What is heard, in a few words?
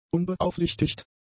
"unbeaufsichtigt", German; adjectives